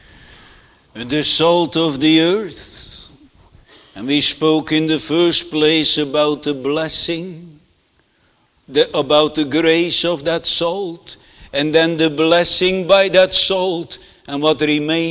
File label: Sermon Continued; Netherlands Reformed Congregation, St. Catharines, ON